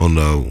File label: "oh", vox; E-Lab; X Static Goldmine 2; akai